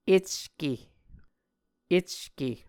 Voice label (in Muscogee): "ecke", Muscogee